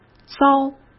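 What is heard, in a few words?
"糟", Mandarin Chinese; hsk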